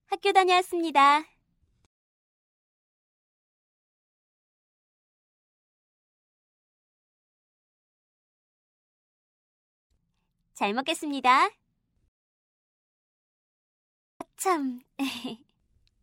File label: mom korean